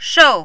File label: "shou4", Mandarin